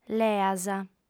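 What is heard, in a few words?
"leas", female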